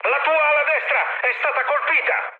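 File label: Italian right wing mood high, speech; War Thunder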